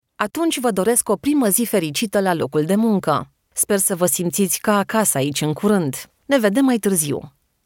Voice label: romanian natural

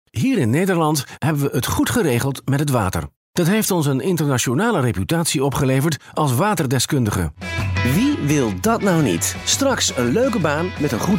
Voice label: dutch voiceover corporate

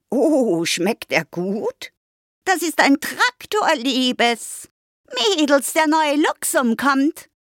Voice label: austrian female character, Austrian German Female

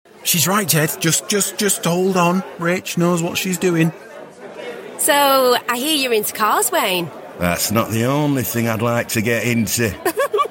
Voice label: character

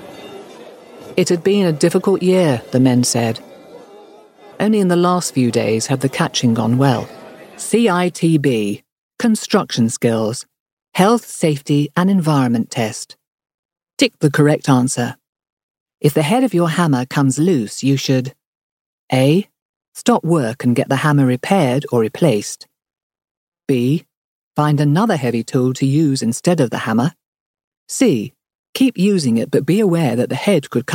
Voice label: documentary snake catchers

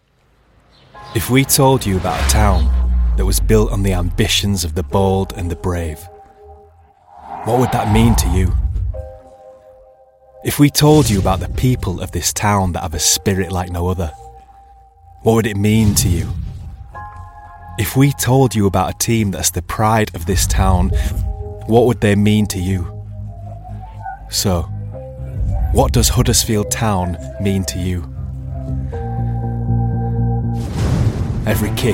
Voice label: promo; huddersfield town

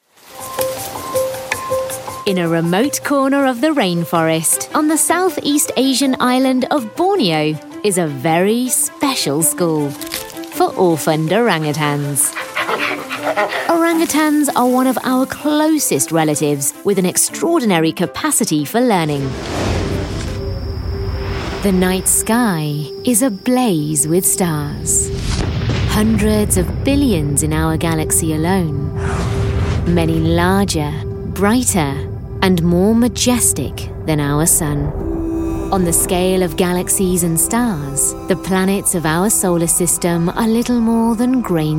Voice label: documentary

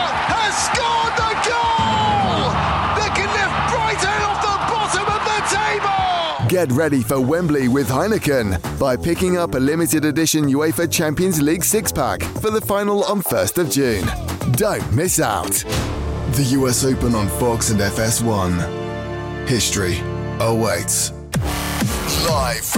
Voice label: sports vo, voiceover

